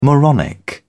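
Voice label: "moronic", British English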